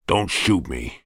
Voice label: human voice character american male 40s says dont shoot me